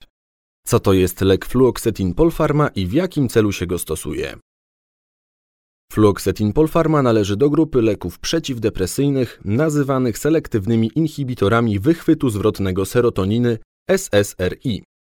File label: Polish Male